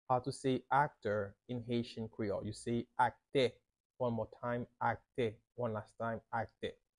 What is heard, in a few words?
How to say Actor in Haitian Creole – Akte pronunciation by a Haitian Creole teacher